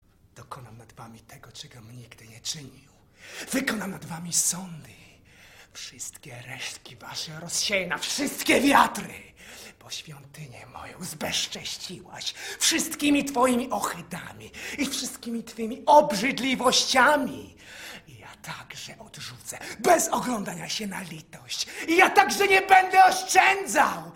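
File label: Polish General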